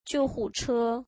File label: ambulance, mandarin